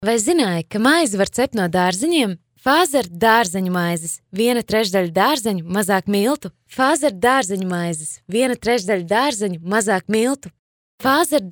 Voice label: latvian commercial

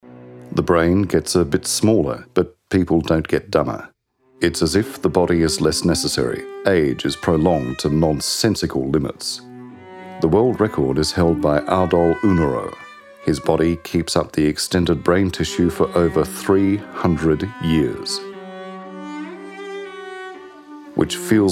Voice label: english australian narration

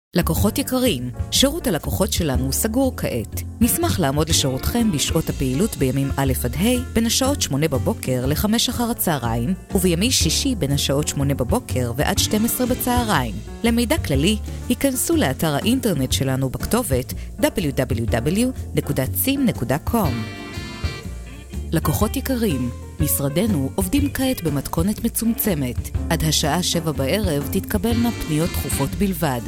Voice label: english neutral ivr

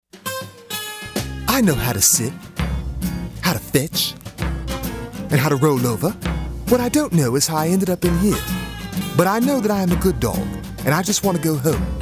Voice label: english american animation

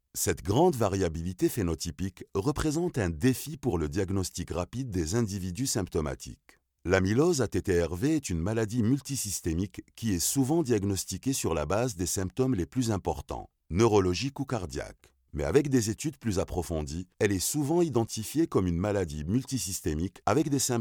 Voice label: french narration

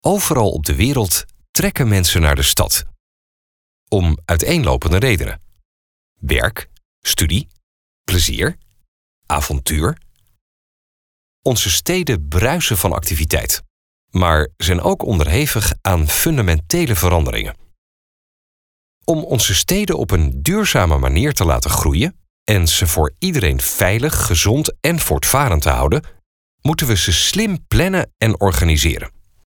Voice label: dutch narration

